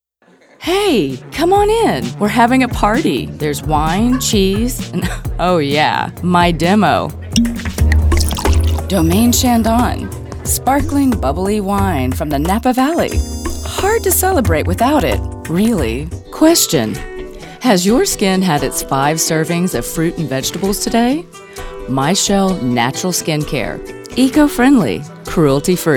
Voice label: Quick Commercial V O